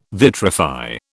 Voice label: "vitrify", British